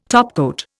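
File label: "topical", Indian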